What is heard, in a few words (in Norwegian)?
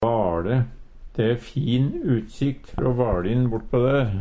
"vale", Numedalsmål; De e fin utsikt frå vaLin bortpå der.; Substantiv hankjønn